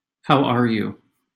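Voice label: pronunciation "how are you", English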